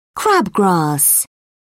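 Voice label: "crabgrass", British English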